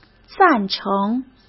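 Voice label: "赞成", Mandarin Chinese; chinese-flashcards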